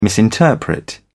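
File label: "misinterpret", British English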